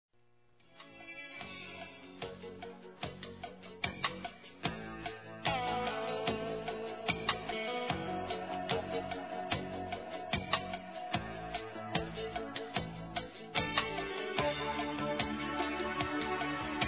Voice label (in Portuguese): Telemensagens Amor Masc; Telemensagens 2017